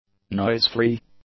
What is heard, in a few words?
"noisefree", english; adjectives